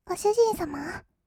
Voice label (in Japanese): ご主人様, kawaii voice; ASMR; 二人称